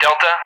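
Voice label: "Delta", English; Alphabet; DCS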